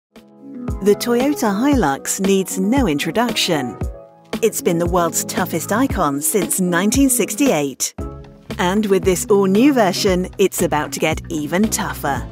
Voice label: corporate